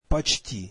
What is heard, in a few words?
russian almost